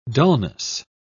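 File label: "dulness", British English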